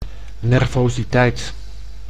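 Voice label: "nervositeit", Dutch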